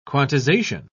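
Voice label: "quantization", English, British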